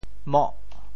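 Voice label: "moh8", Teochew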